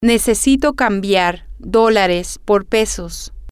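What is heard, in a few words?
i need to exchange x for y, spanish, female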